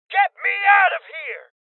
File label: Male Young; Rebel Cops; Voices HeavyLosses